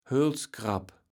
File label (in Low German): "Hölskrabb", kaevels platt